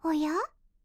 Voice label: exclamation